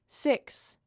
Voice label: "Six", F

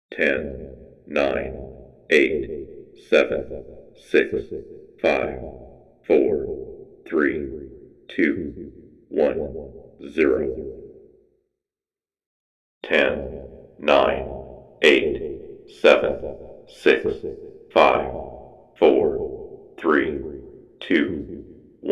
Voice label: Countdown Nasa Style

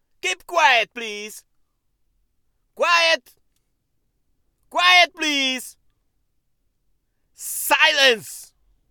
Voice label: Male Shouting Asking For Silence

